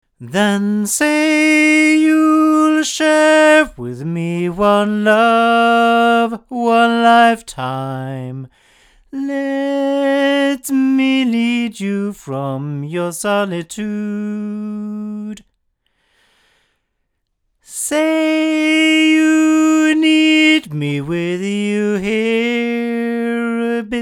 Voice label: Oktava MK319A male

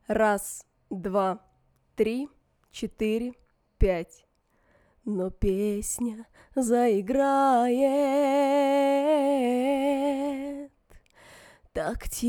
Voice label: fem; peratron ML-19

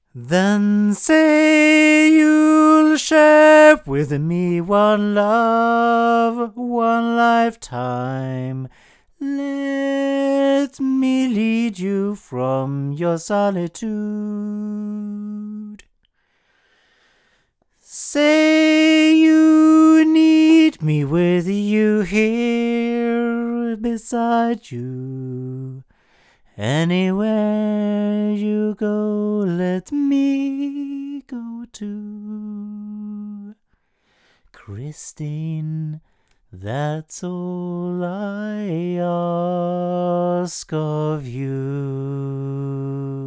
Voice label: Oktava MC012 hypercardioid male

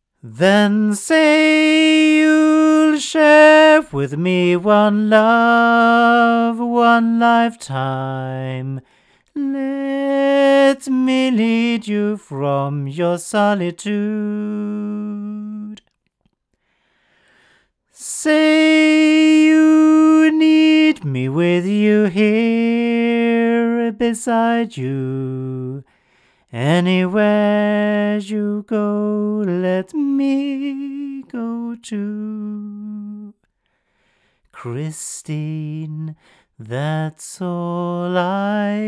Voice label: Oktava MKL-2500 male

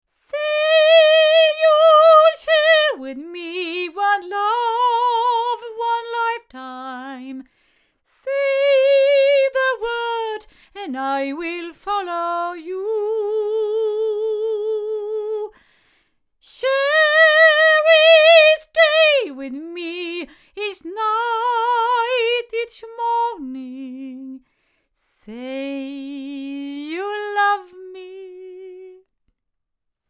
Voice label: Oktava MC012 cardioid female

